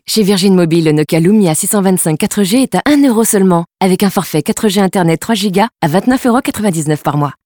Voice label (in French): PUB RADIO; VIRGIN MOBILE